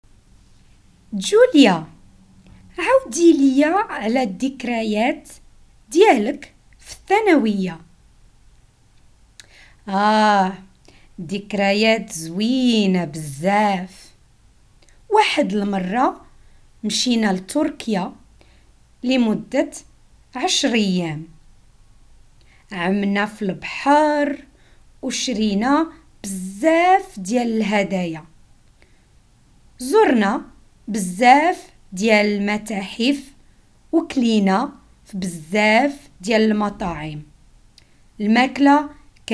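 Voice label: Moroccan Arabic dialogue past experience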